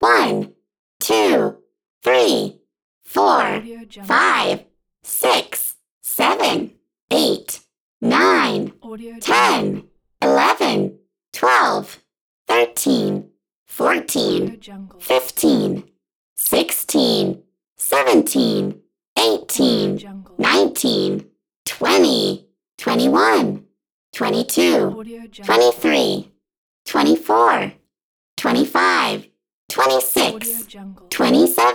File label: female robot counting to 50